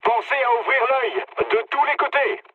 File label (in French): chat, speech fr